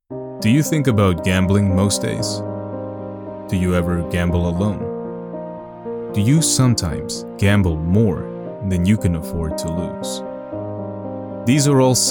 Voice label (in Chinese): 外籍英男赌瘾救助组织宣传片深情